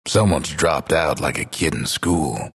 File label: announcer disconnect disconnected; dlc bastion